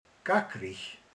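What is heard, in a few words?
"csapongó", Hungarian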